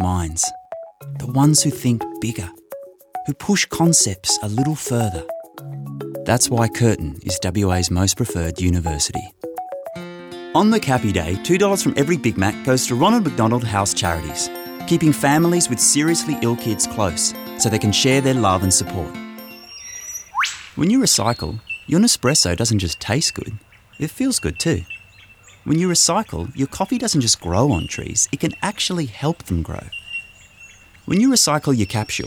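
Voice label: voice over, male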